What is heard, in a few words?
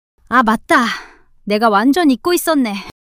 fluster